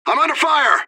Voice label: radio bot under fire; fbihrt